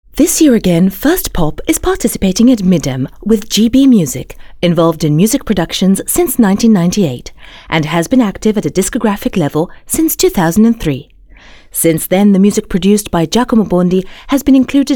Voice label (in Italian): INGLESE BRITISH, speakers